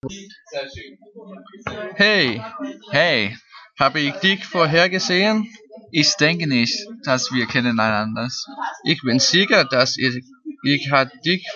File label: tysk